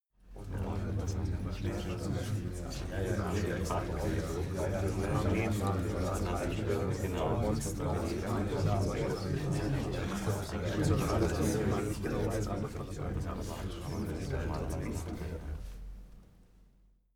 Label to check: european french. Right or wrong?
Wrong